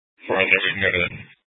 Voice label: Police Dispatch

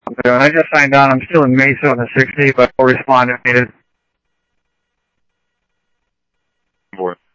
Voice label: Scottsdale Police, scanner audio; H-Scottsdale

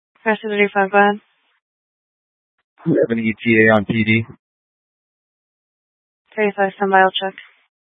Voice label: Fire Dispatch; K8 - FDCOM 8 - South; C- Chandler

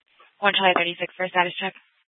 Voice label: Mesa PD Central Dispatch, Scanner

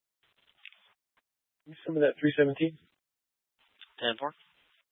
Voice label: Mesa PD Dispatch

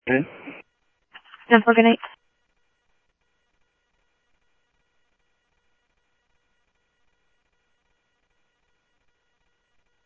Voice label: Tempe Police, scanner audio; TEMPE PD A02

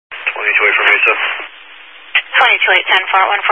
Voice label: Highway Patrol, Phoenix Metro East Area, scanner

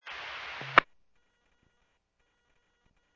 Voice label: Medevac, scanner; NativeAirAmb

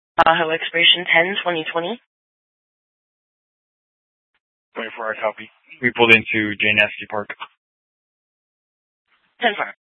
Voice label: Police Dispatch; Regional Wireless Cooperative (RWC) - Simulcast C- Chandler; Maricopa Police (City - Pinal County)

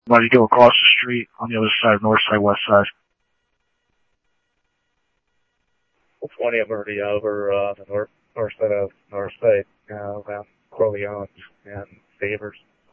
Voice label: Scottsdale Police, scanner audio

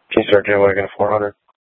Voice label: Sheriff scanner; Shrf Mnt (Parks)

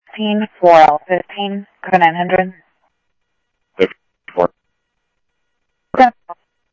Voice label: Police, scanner audio trunk

